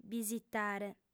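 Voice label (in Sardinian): "bisitare", female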